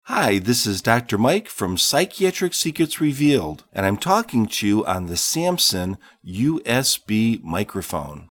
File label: Samson USB